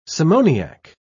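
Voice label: "simoniac", British English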